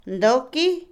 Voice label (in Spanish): Otomí, mujer; agacharse, ponerse en cuclillas; Movimiento; INALI